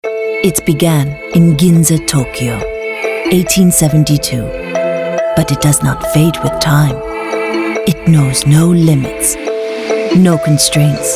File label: Asian Accent; Shiseido